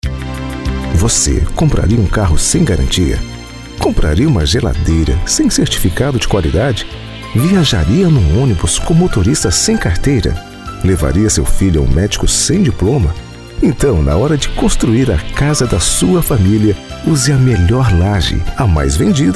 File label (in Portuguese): spot comercial